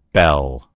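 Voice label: "bell", American English; gcompris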